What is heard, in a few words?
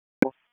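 Police Southwest District Disp, radio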